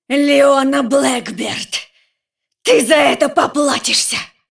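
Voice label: cinematic voice, Russian; lineage2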